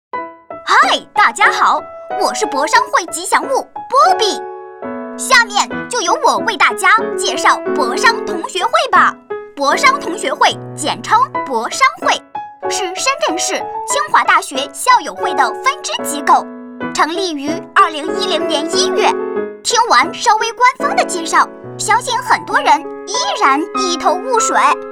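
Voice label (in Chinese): 男童卡通炉具使用说明; 蓝天炉具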